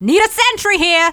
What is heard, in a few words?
scout need sentry, femscout